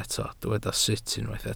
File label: "sut", Welsh